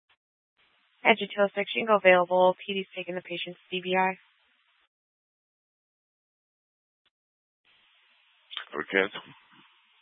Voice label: Fire Departments Mesa Simulcast; TOPAZ